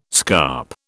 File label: "scarp", British